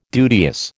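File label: "duteous", American English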